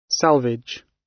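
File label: "salvage", British English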